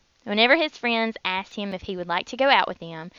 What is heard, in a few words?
southern; Whenever his friends asked him if he would like to go out with them